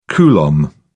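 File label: "coulomb", British English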